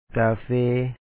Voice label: "kaafe2e", Lao; coffee